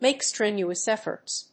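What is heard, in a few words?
"make strenuous efforts", English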